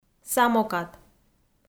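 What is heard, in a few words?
"самокат", Komi, female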